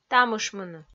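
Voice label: "тамышмыны", Komi, female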